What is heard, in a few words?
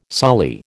"sully", American